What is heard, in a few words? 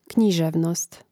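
"književnost", Croatian; Mrežnik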